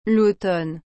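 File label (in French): "l’automne", French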